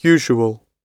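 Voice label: "usual", British English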